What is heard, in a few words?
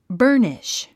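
"burnish", English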